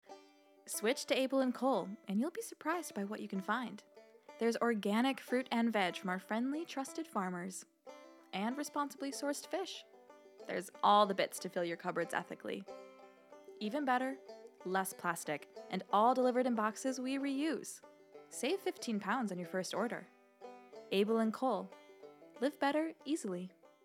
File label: Commercial UK